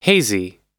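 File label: "hazy", American English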